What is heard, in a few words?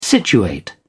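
"situate", British English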